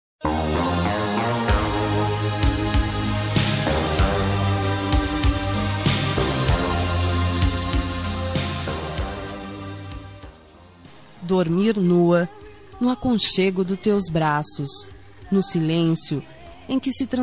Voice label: PICANTE FEM; Sensuais; Telemensagens; ROM PICANTE

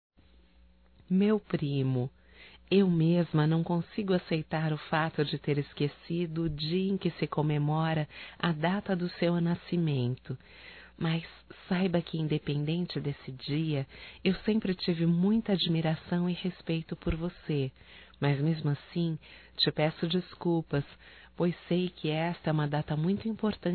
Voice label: Aniversário Feminino, Telemensagens; Aniversário Atrasado Para Primo Feminino

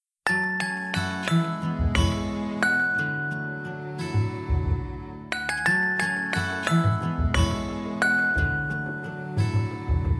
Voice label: Aniversário Atrasado Feminino; Telemensagens 2017; Aniversários; Aniversário atrasado e antecipado; Amigo

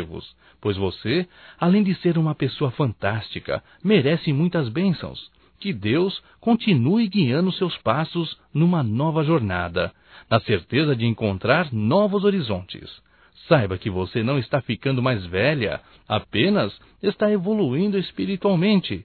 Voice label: ANIV VIZINHA M, Telemensagens; Telemensagens 2017; Aniversarios

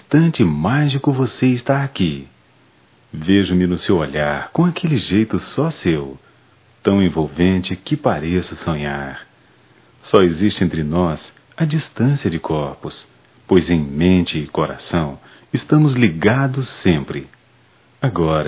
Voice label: Natal distante neutro; masc; Telemensagens 2017; Natal & Ano Novo

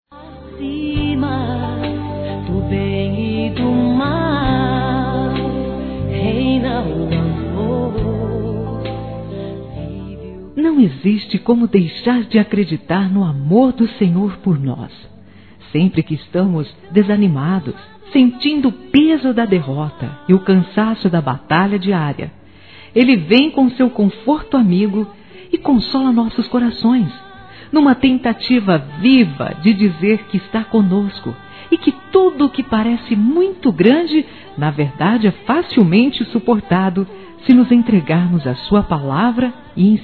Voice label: RECONCILIACAO(F); Aniversários; ANIV. Evangelico; Fem

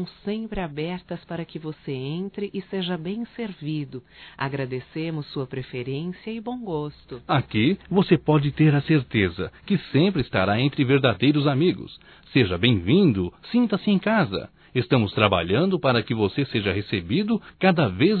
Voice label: CLIENTE MéDICO DUAS VOZES, Telemensagens; Profissionais; fem; Telemensagens 2017

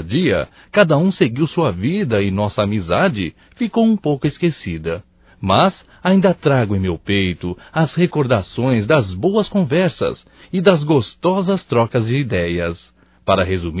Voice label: SAUDADES AMIGO, Só Voz, masc; Telemensagens; Telemensagens 2017

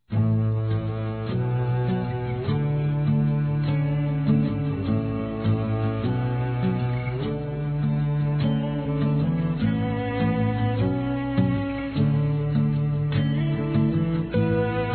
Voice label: Mensagem de Carinho Feminino; Telemensagens; Telemensagens 2017

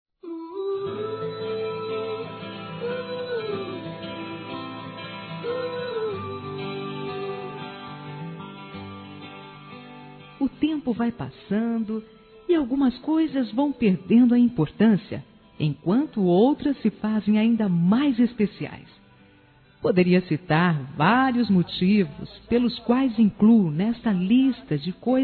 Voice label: AMIZADE F, Telemensagens

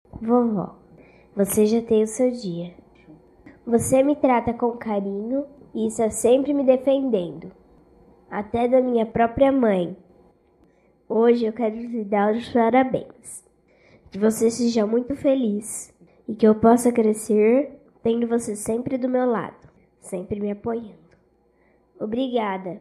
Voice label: DIA DA AVÓ INFANTIL F; Datas Mistas; Telemensagens 2017

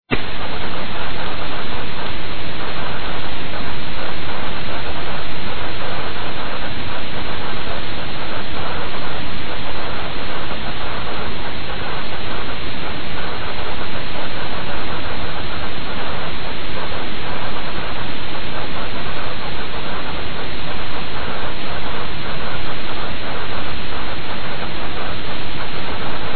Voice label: ESQUECI ANIV. NAMORO, masc; Telemensagens 2017

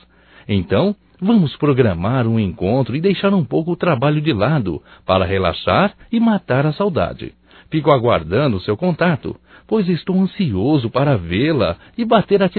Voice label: SAUDADES AMIGA, Só Voz, masc; Telemensagens; Telemensagens 2017

